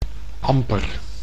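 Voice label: "amper", Dutch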